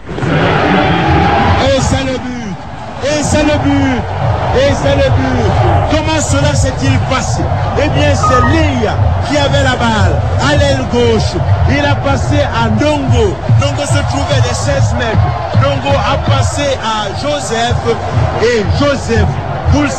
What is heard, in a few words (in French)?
Match foot Cameroun commentaires